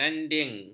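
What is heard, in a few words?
"gandin", Malay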